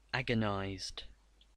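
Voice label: "agonized", English; pronunciations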